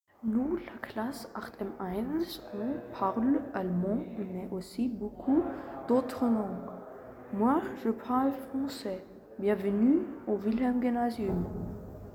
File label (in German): franzoesisch